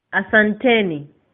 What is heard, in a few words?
thanks, swahili